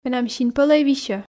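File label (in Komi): менам син пӧлӧй висьӧ, Komi, female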